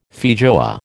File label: "feijoa", American